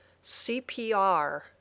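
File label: "CPR", English word; Cardiopulmonary Resuscitation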